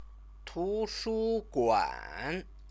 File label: "tushuguan", Chinese